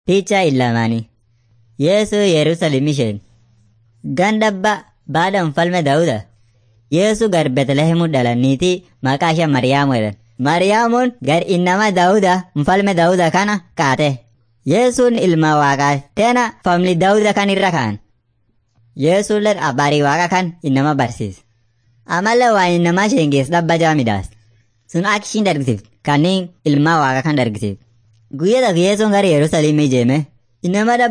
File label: afan munyoyaya; servants of god